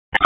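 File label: Police District Dispatch, p25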